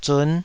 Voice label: "zun5", Taiwanese